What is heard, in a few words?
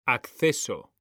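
"acceso", Spanish, male; mekanta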